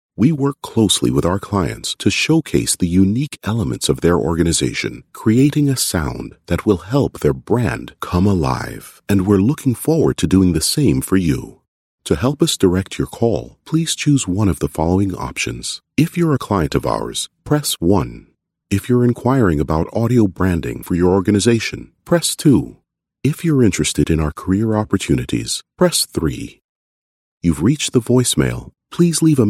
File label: english canadian ivr